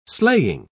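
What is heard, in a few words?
"sleighing", British English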